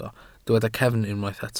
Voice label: "cefn", Welsh